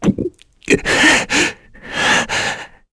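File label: Vox Sad, Korean; heroes; Esker; kingsraid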